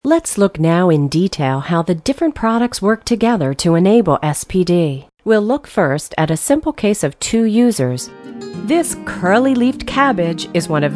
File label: NARRATIONS